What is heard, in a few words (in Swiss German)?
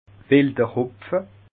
"wilderhopfe", alsace